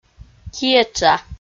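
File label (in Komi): "киэтша", Komi, female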